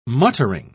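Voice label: "muttering", British English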